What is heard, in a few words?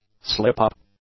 "slip up", english; nouns